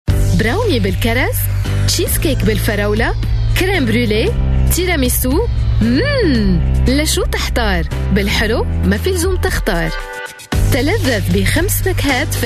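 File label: Arabic, female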